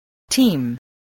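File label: "team", English word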